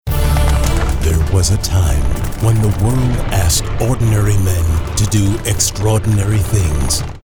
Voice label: TRAILER; BAND OF BROTHERS

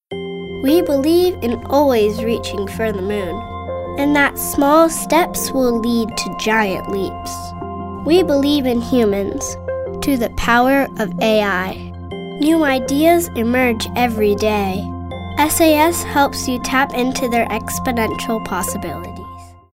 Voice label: confident

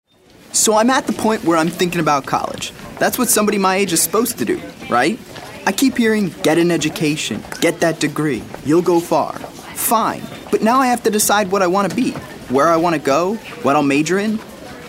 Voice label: guy next door

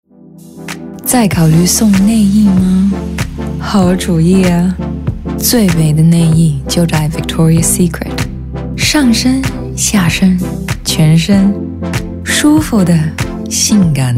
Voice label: Mandarin; Victoria's Secret

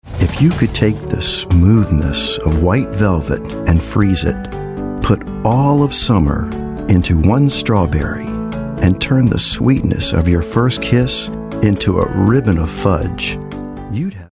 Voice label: soft spoken

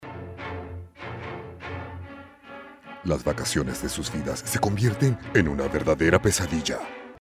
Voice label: Scary

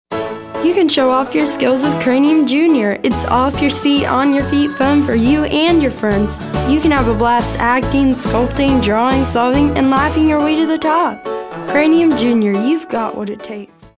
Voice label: Fun

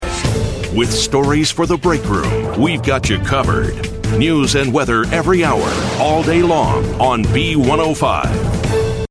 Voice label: News

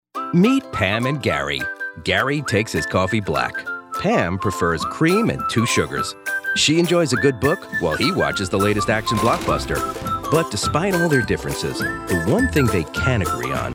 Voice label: Storyteller

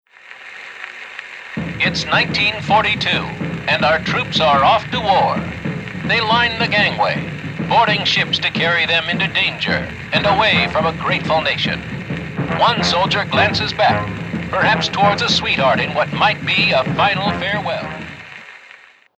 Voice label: Newsreel

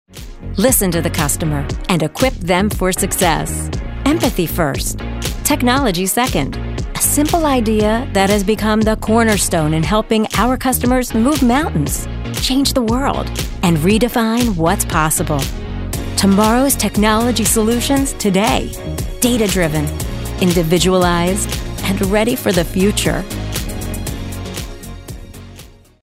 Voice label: Ready For The Future Corporate

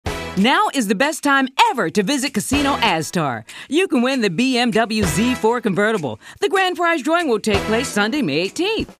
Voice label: high energy

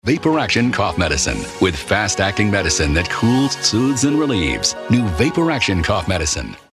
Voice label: hardsell; Vapor Action

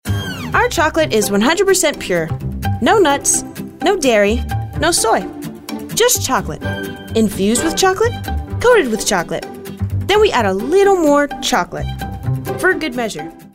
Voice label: upbeat

